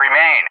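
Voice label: "remain" English, speech; JTAC; DCS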